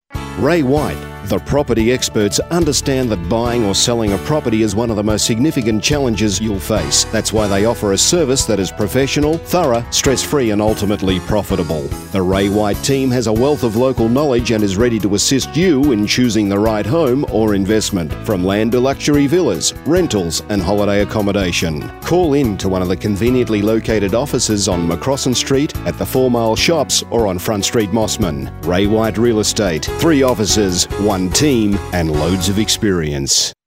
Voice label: Voice Over; RayWhite